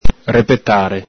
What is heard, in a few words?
"repetare", Venetian